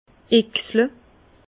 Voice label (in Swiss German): "oechsle", Bas Rhin; vin